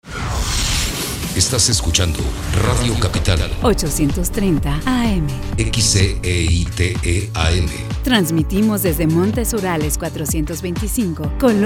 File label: spanish mexican promos